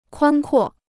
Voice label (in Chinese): "宽阔", Chinese